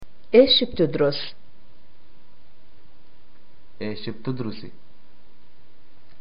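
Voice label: Palestinian get acquainted what do you study, arabic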